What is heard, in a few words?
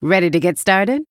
Female Human New Game